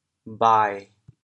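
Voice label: "bhai5", Teochew, chaoyang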